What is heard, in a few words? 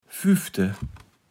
"füffde", Kaevels Platt